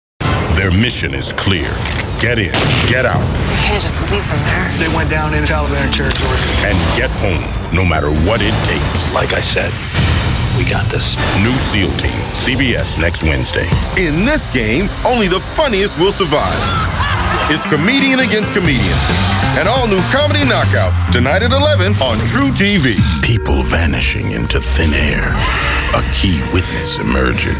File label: Promos